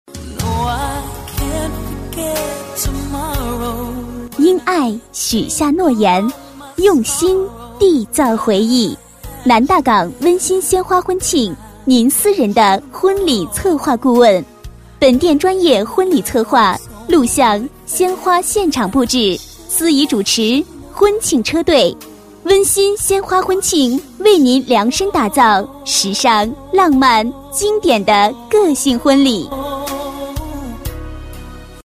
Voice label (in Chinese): 女, 广告, 国语女声, 年轻; 鲜花婚庆